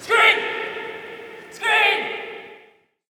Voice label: Hockey Male "Screen"; Hockey Vocal Sounds